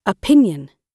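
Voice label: "opinion", British English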